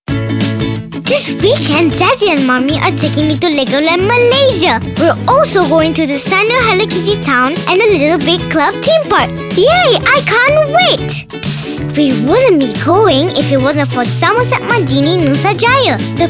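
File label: English; Ascott Hotel; Somerset Medini Nusa Jaya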